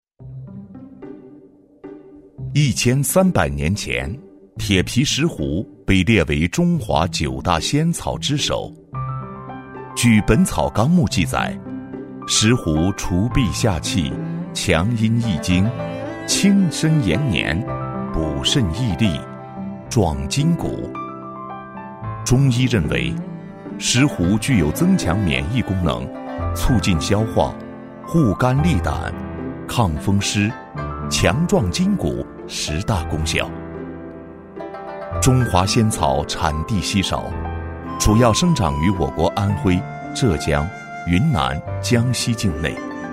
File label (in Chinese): 纪录片; 舌尖; 铁皮